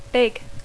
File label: "big", English